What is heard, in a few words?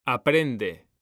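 "aprende", Spanish, male; mekanta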